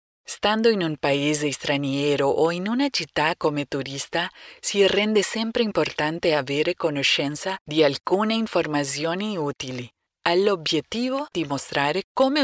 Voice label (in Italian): italiano